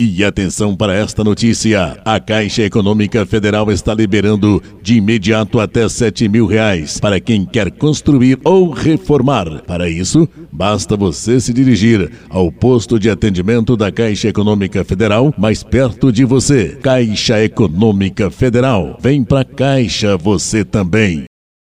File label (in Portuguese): voz padrao noticiario